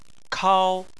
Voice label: "kao1", Chinese

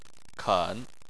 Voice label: "ken3", Chinese

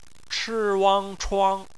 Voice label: "chuang", Chinese; phonetics; bpmf

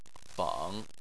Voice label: "feng3", Chinese

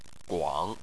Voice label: "guang3", Chinese Phonetics

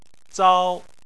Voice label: "zao1", Chinese

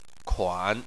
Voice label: "kuan3", Chinese

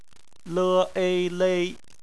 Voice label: "lei", Chinese; phonetics; bpmf

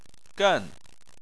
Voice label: "gen4", Chinese

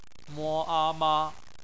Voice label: "ma", Chinese; phonetics

